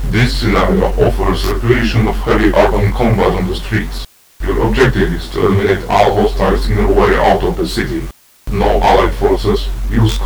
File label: BRIEFING, ambience